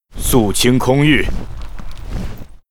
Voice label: Dragonhawk yes, zh; 龙鹰骑士（魔兽争霸3）; 人类; 攻击时

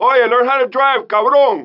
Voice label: 拉丁裔海滩中年男性; 罪恶都市; 海滩度假者

